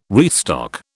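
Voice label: "restock", British English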